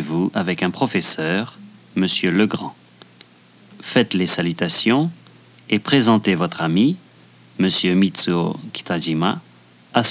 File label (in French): Exercices de conversation